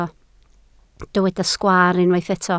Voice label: "sgwaar", Welsh